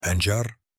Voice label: beet in arabic